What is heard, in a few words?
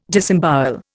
"disembowel", Indian